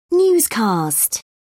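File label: "newscast", British English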